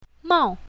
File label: "mao4", Chinese